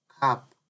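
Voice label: "cap", Nigerian Pidgin, male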